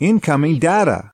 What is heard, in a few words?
"incoming data", US male; lynne publishing